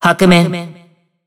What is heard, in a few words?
System Voice Character Select; BBCF; Hibiki Kohaku; Hakumen